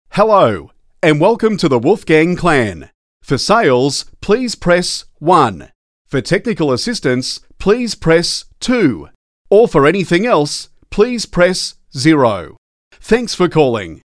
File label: AU PHONE